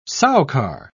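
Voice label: "soucar", British English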